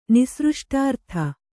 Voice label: "ನಿಸೃಷ್ಟಾರ್ಥ", Kannada

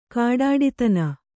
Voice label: "ಖಡ್ಡತನ", Kannada

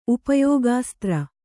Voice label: "ಉಪಯೋಗಾಸ್ತ್ರ", Kannada

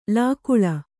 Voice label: "ಲಕ್ಕಲಿ", Kannada

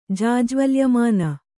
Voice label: "ಜಾಜ್ವಲ್ಯಮಾನ", Kannada

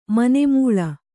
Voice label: "ಮನೆಮೂಳಿ", Kannada; mane mūḷi; noun

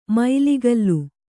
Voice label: "ಮೈಲಿಗಲ್ಲು", Kannada

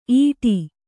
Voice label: "ಈಟಿ", Kannada

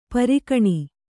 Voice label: "ಪೀರಖಾನಿ", Kannada

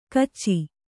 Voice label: "ಕಿಚ", kannada

